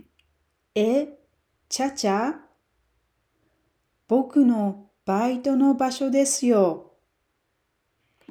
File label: japanese Dialogue; 7.0 Expanding Your Japanese Toolkit (2)